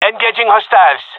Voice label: attacking, male; vo

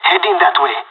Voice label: on my way, pirate; Counter Strike Model Pack; CS:GO

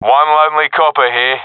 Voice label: sees one enemy, professional epic; vo; Counter Strike Model Pack; CS:GO

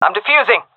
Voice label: defusing, seal fem; vo; Counter Strike Model Pack; CS:GO

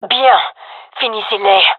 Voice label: round winning, fem

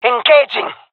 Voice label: attacking, jungle fem; vo; agents; Source Model Packs; Counter Strike Model Pack; CS2; jungle fem epic

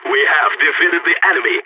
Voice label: radio bot end solid, balkan

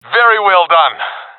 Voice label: sees enemy killed, professional epic; vo; Counter Strike Model Pack; CS:GO

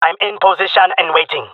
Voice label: waiting here, jungle male; player vo; Counter Strike Model Pack; CS:GO

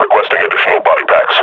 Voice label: injured, grunt; Half Life Model Pack

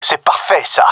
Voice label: compliment, gendarmerie male; vo

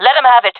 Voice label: attacking, fem; player vo jungle fem

